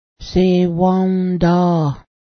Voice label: inflate, Cayuga; sewa:ǫ́da; inflate (v.).